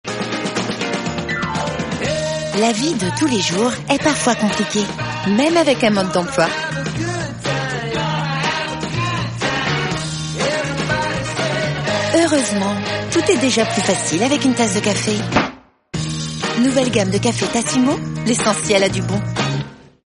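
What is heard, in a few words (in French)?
Spot Tv Fraîche complice souriante, voix off féminine; Tassimo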